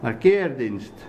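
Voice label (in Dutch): "Markeerdienst", dialect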